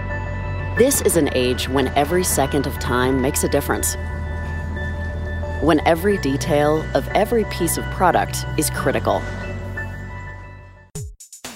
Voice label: Business Corporate